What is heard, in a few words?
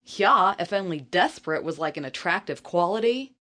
If Desperate, Attitude, Female